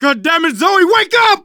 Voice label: grief teen girl, manager, survivor, voice; Left 4 Dead 2; left4dead2 dlc2